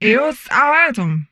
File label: humanoid female; Stellaris; humanoids